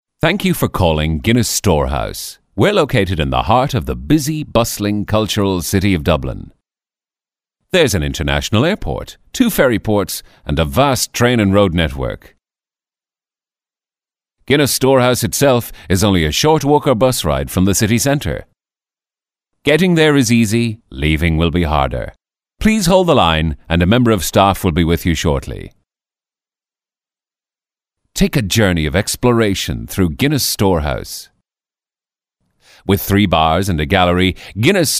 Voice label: Male Irish Warm Southern VO